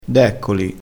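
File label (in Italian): "deccoli", dialettale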